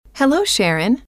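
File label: hello, female; trainer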